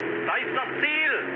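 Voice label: German near waypoint mood high, speech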